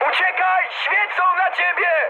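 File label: searchlite, mood high, Polish speech; War Thunder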